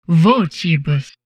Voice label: humanoid portrait female; Stellaris; humanoids